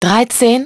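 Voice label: "thirteen", English; iOS 4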